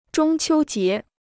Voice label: "中秋节", Chinese